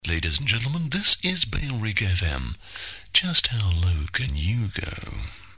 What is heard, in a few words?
male, no bed; l&g - how low can u go; bailrigg fm; bfm jingles ads RSL 1994